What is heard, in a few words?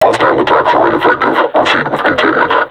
taunt, vo combine suppressor; Half Life Alyx